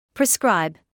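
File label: "prescribe", British English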